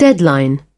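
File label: "deadline", British English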